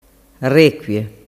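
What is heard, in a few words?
"requie", Sicilian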